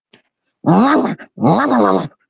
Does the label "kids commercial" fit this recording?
No